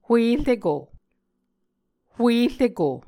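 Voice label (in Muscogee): "huereko", Muscogee word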